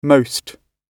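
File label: "most", British English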